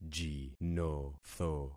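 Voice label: "jinotho", concatenated syllables; Affixing experiment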